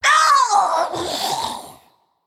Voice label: Female Naga Death

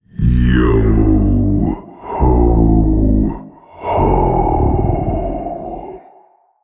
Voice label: Male Old God pirate day

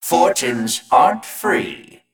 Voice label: Male Mech Attack

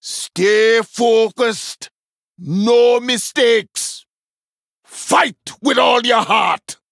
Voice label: Male Troll

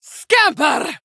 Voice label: Female Draenei Attack

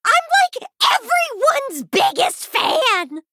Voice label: Female Gnome

